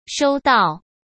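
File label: "收到", Mandarin Chinese; hsk; chinese-flashcards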